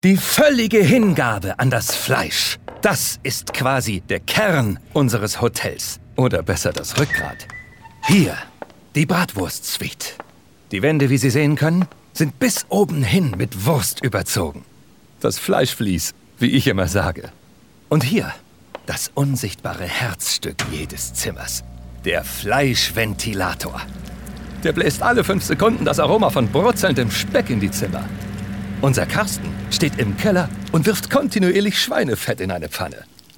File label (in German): DE Synchron Sarkastisch; Fleischhotel